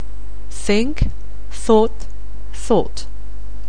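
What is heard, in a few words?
"think", English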